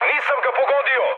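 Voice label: Serbian bomb failure mood high, speech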